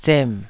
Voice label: "zem3", taiwanese syllables